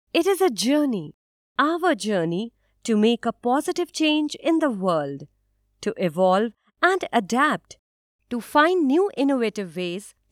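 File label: English Corporate narration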